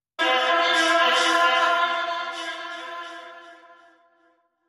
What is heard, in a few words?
Offbeat Radio Voice